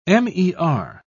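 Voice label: "mer", British English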